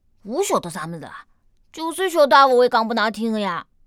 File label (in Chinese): 残疾小孩; 配音